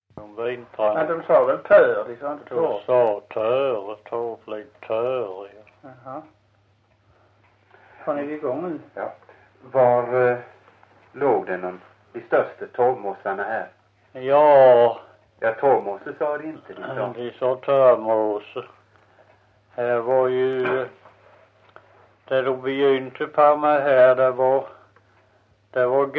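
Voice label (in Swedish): Visseltofta Skåne, Svenska sagor dialekter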